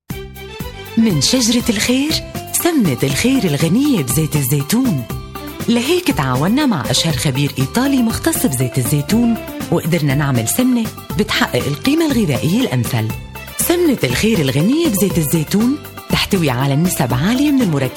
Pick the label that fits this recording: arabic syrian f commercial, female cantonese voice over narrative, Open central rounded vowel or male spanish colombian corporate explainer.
arabic syrian f commercial